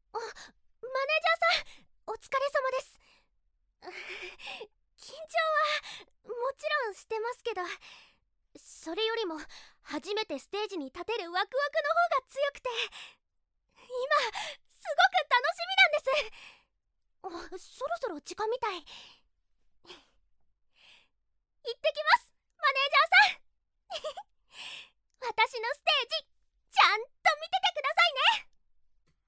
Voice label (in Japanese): アニメ